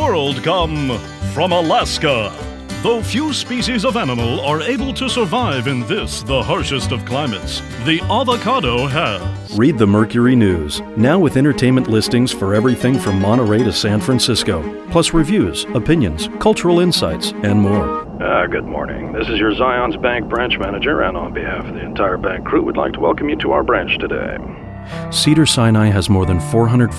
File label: Commercial